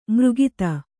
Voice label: "ಮಾರಕತ", Kannada

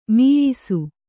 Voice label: "ಮೀಯಿಸು", Kannada

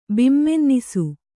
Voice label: "ಬಿಮ್ಮೆನ್ನಿಸು", Kannada

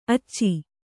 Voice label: "ಅಚ್ಚೆ", Kannada

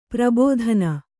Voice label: "ಪ್ರಬೋಧನ", Kannada; prabōdhana; noun

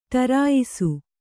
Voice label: "ಟರಾಯಿಸು", Kannada

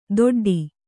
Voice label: "ದೊಡ್ಡಿ", Kannada

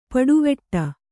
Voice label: "ಪುಡಿವಡು", Kannada

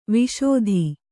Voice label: "ವಾಶಿತ", kannada

